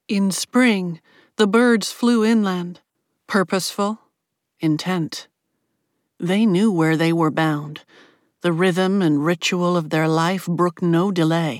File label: Accent Demo General American